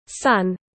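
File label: "Son", English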